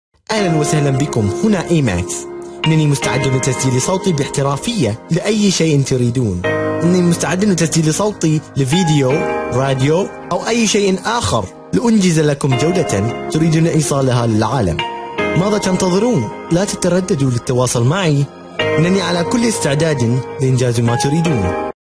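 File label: Arabic, male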